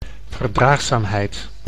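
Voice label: "verdraagzaamheid", Dutch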